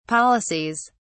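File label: "policies", British English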